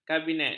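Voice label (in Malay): "kabinet", Malay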